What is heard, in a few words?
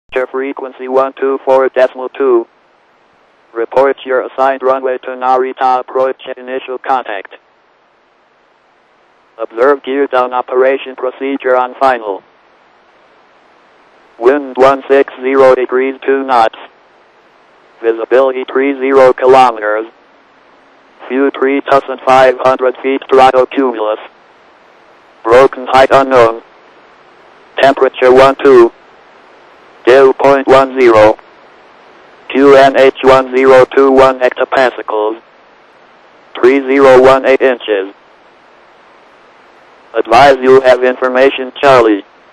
ATIS, Japan; NRT